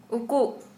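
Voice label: Santali, female, hidden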